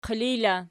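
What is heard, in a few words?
"qilij" locative, Archi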